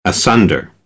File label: "asunder", American; English; ɚ - əɹ